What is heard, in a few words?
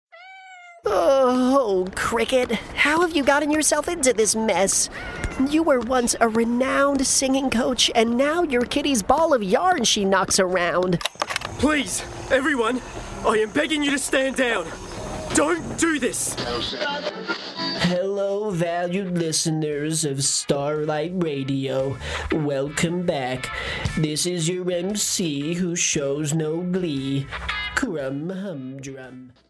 Animation